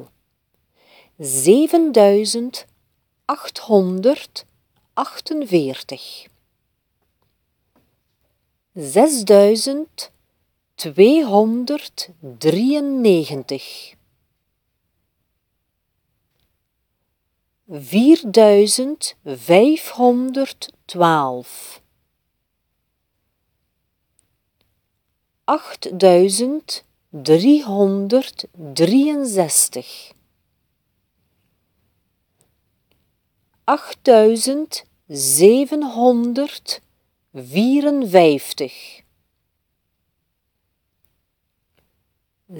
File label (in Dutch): Cijferdictee